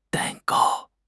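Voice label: "dan4 gao1", Mandarin Chinese; HSK3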